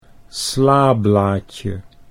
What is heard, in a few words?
"slablaadje", Dutch